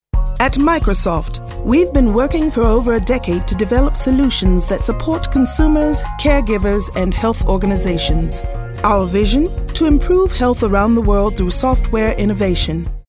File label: british